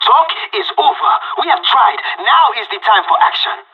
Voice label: radio lock n load